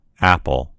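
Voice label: "apple", American English; gcompris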